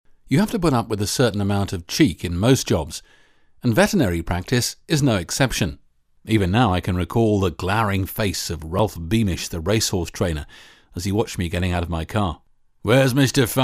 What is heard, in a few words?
audiobook